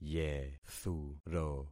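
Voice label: "yethuro", concatenated syllables; Affixing experiment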